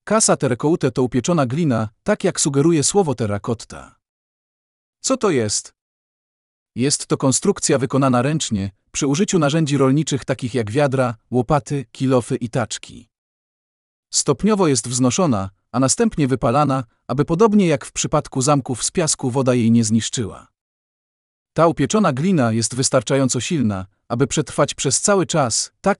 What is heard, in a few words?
Polish; Casa Terracota